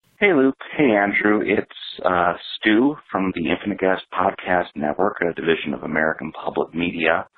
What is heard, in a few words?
recorded message